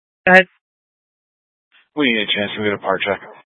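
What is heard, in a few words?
Incident Tactical 1, Scanner audio recording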